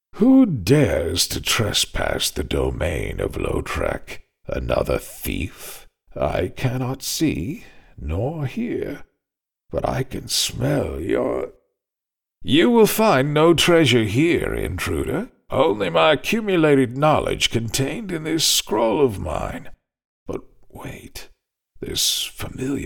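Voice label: Game Character imperious then frightened, American Male Voice; Lotrec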